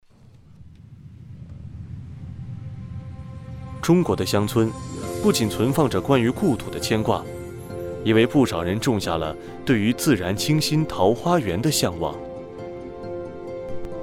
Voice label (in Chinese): 温馨感人翻配; 幸福中国